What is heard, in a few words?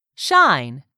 "SHINE", english; 3000 words